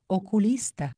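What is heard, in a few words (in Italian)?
"oculista", italiano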